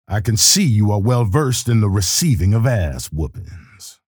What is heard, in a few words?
chatter positive; keel; frag